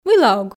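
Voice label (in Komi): мыйла ог, Komi, female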